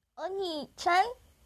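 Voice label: "onii", Japanese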